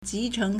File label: "ji2 cheng2", Chinese